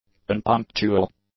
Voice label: "unpunctual", english; adjectives